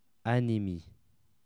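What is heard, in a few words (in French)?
"anemie", French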